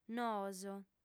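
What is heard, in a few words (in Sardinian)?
"noos", female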